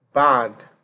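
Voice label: "bad", Nigerian Pidgin, male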